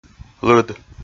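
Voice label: "лыд", Komi, male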